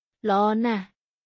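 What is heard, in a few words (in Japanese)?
クメール語（カンボジア語）の日常会話基本フレーズ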